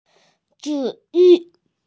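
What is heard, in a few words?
"cure", Mandarin Chinese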